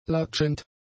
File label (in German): "latschend", German; adjectives